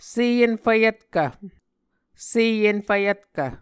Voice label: "seenfvyvtkv", Muscogee